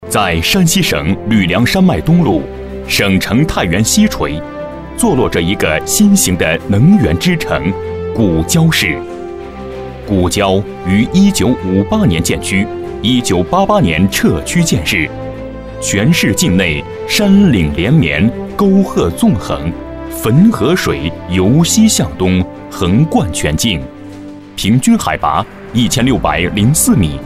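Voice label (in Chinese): 【专题】城市专题-大气浑厚; 古交市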